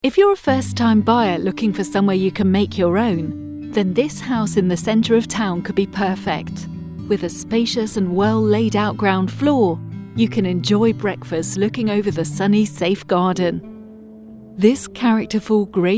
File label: Real Estate Voiceover Reel